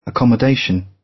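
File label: "accommodation", British English; Language, linguistics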